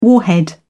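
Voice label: "warhead", English, British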